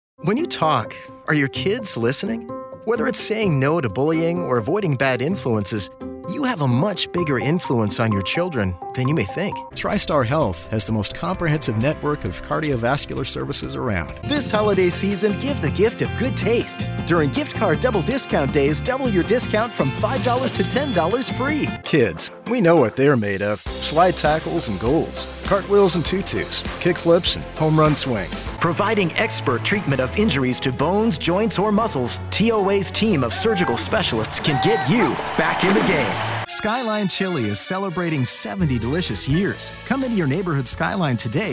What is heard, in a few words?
Commercial